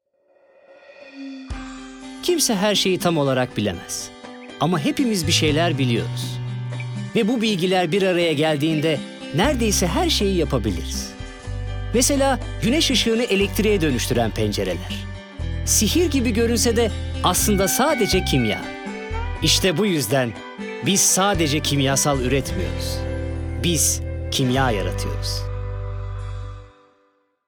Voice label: duygusal motive; sicak

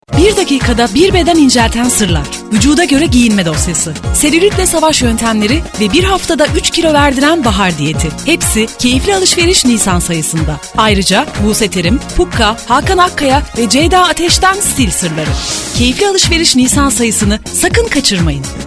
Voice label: enerjik, seslendirme

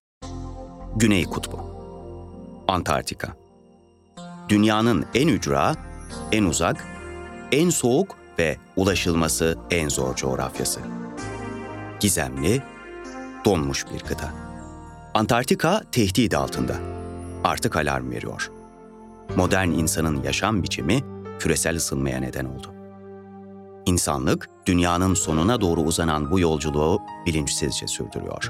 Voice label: belgesel, seslendirme

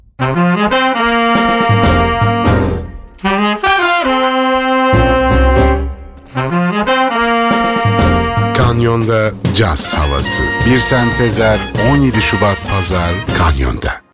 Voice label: sicak sakin, seslendirme

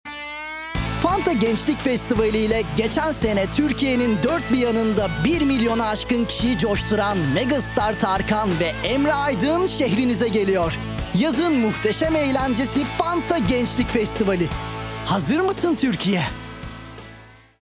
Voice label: kampanya, seslendirme

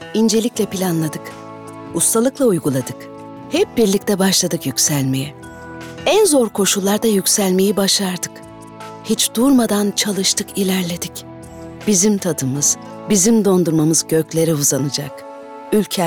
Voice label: duygusal motive, seslendirme

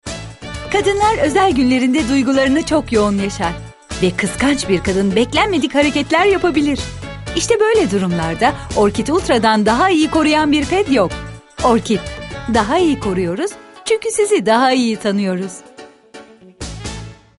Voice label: dinamik; seslendirme

